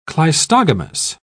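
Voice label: "cleistogamous", British English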